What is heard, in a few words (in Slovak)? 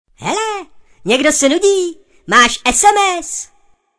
sms hlásky cz